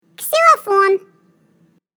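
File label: xylophone, Russian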